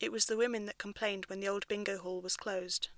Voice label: Clear Speech